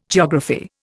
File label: "geography", Indian